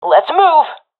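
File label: request move, professional female; vo; Counter Strike Model Pack; CS:GO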